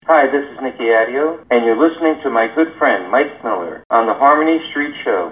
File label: promo